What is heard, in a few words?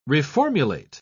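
"reformulate", English, uk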